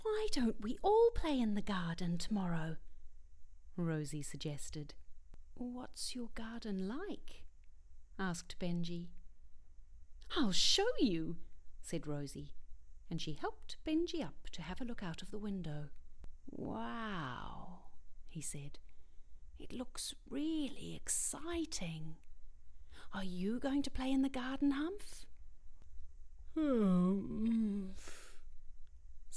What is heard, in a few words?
Children and Adult Narrative